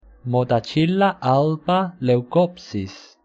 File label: "motacilla", latin